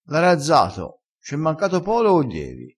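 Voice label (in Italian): "razza", vocabolario dialettale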